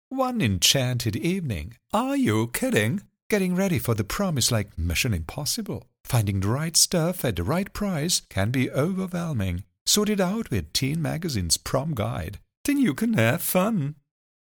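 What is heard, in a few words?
BRIT commercial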